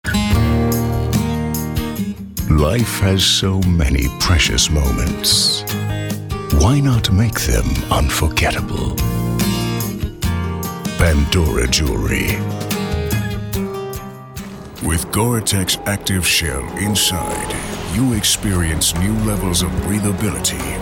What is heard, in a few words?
Eng US Variabel